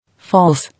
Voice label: "FALSE", English